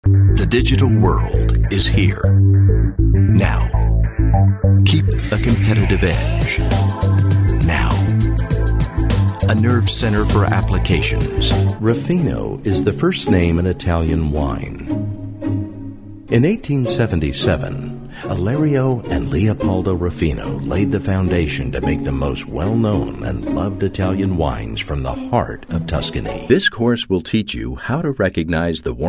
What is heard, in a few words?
Narration